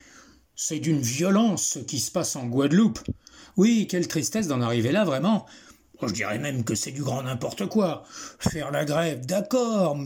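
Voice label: French characters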